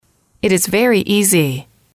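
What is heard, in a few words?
it is very easy, English, female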